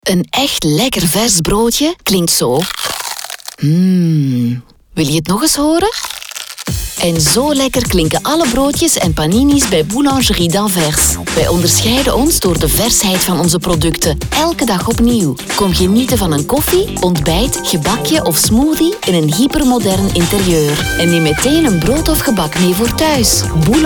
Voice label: radio; Boulangerie D'Anvers; Radio Stad